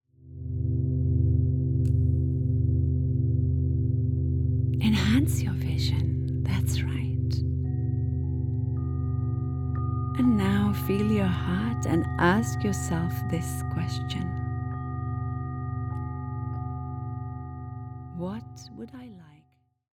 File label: Morning Meditation Guided